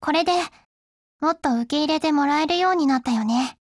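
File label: FEED, Japanese; 80type Mod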